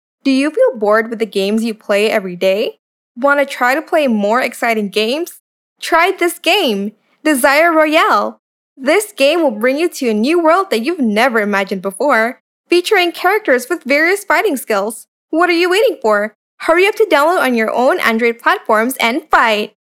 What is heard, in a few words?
casual English